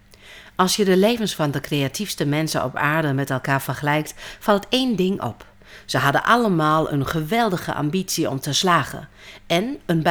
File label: Nederlands